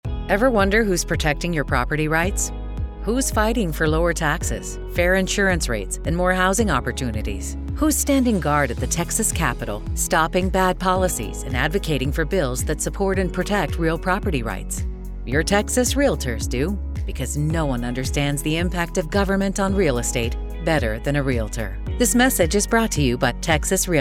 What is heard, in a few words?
Female; Advocacy at the capitol